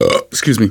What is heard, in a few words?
generic, manager, survivor, voice; Left 4 Dead 2; left4dead2 dlc2